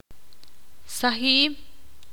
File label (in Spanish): ver, ixil